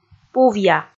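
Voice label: "пувъя", Komi, female; kpv audio dict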